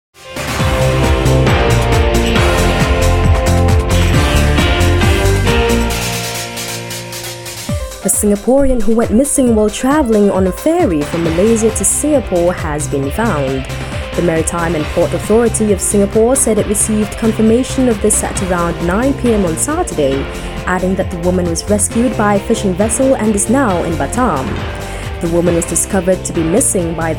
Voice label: English Newsreading